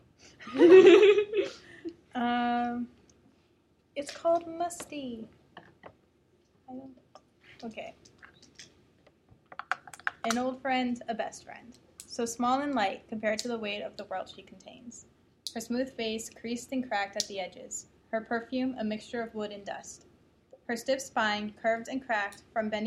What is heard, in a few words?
Poem